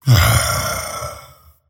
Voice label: happy; dota; dragon knight